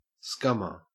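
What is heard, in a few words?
"scummer" English, Australian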